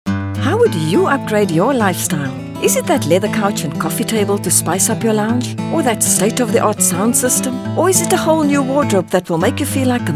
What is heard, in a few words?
English Hard Sell